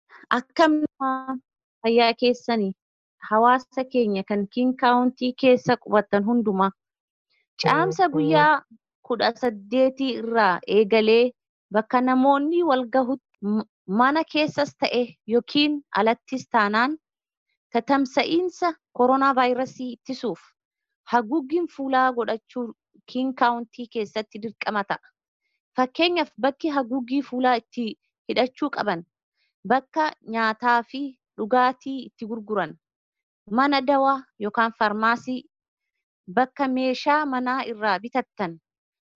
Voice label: Oromo